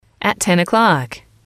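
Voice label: "ten o'clock", English, female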